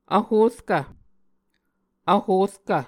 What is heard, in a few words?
"vhorkv", Muscogee